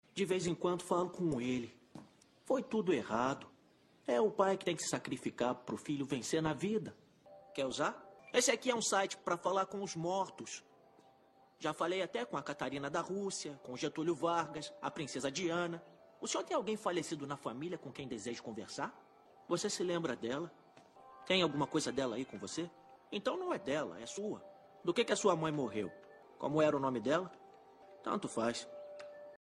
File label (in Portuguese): Voz Homem da Pensão